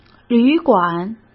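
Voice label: "旅馆", Mandarin Chinese; chinese flashcards; hsk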